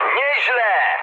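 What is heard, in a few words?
trick mood high, speech Polish; War Thunder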